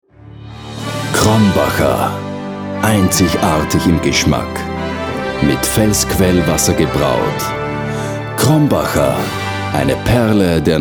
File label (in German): Werbung, bier; Krombacher